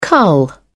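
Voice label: "cull", British English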